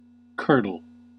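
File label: "curdle", US English; pronunciations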